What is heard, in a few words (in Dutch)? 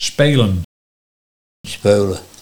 "Speulen", dialect Nieuw lekkerlands; spelen